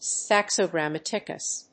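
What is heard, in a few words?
"Saxo Grammaticus", English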